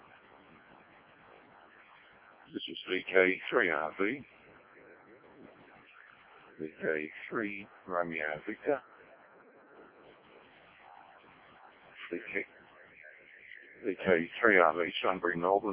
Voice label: decoded speech, parrot